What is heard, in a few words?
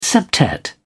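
"septet", British English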